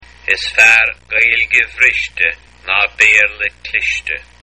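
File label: proverb, Irish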